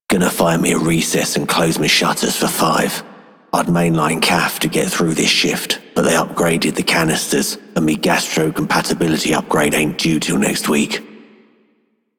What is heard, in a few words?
Male Worker, Male One, en; Dialog; Warframe; Solaris Venus